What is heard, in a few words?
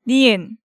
"Nieun", Hangeul sounds; DigitalSoju